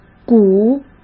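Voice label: "股", Mandarin Chinese; hsk; chinese-flashcards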